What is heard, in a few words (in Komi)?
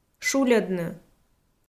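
"шульӧдны", Komi, female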